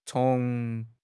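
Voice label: "cong2", Chinese; HSK2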